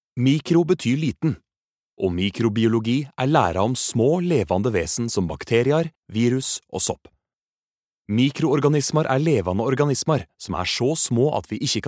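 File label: Norwegian nynorsk, male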